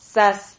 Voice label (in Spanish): "sas", Silabas